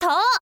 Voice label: "too", info girl